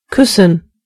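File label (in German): "smooch", German; gcompris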